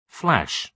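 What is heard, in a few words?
"flash", British English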